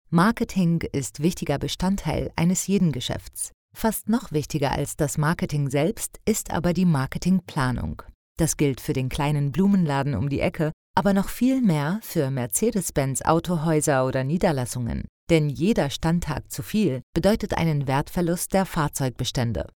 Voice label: e-learning marketingplanung

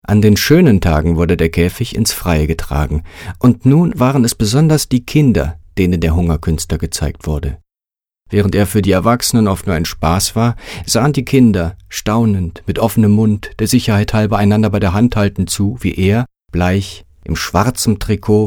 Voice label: lesung; kafka

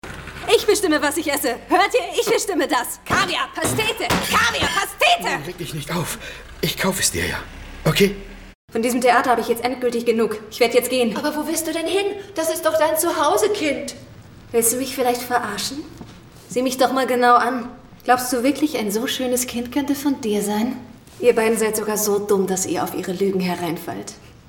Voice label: synchron; tomie unlimited

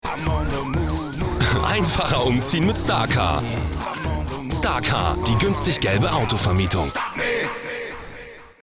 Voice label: Werbespot Autovermietung; starcar

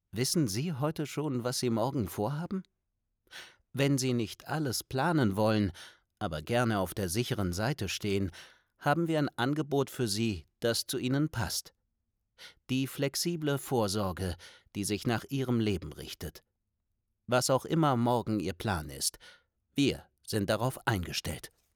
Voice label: Werbung flexible Vorsorge

